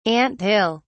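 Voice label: "ant hill", British English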